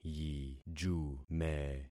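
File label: "yijume", concatenated syllables; Affixing experiment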